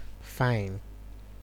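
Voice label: "fine", Nigerian Pidgin, male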